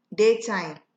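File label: "daytime", Nigerian Pidgin, female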